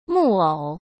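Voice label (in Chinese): "木偶", Mandarin Chinese; chinese-flashcards; hsk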